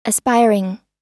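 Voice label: "aspiring" US English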